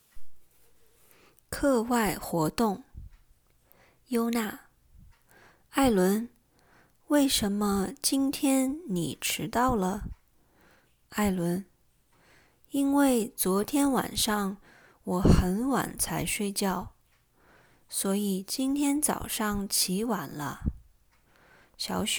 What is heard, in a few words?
conversation, mandarin; mandarin lesson materials